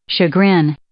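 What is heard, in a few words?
"chagrin", English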